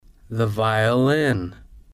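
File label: the Violin, slow; english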